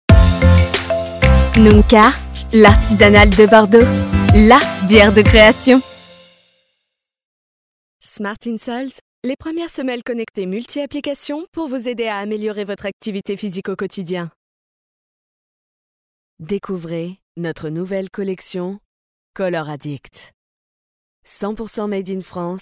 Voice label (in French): Voix off Publicite